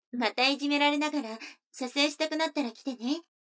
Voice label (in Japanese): 女の子台詞